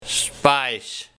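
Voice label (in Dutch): "Spais", dialect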